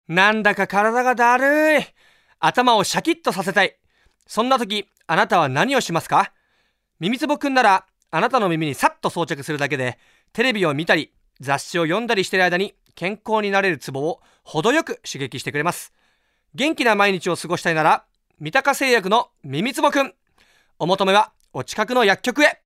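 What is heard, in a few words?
Narration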